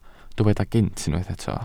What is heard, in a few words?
"gynt", Welsh